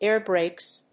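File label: "air brakes", English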